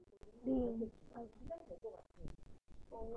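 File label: "ding", spoken word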